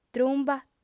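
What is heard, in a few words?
"trumba", female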